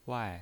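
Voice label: "wai", pinyin voice, fourth tone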